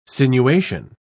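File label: "sinuation", British English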